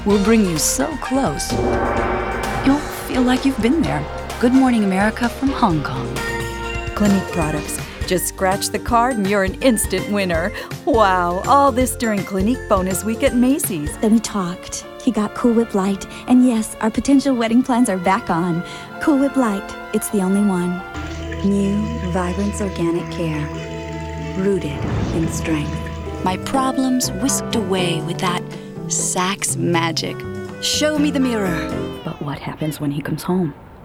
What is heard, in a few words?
Commercial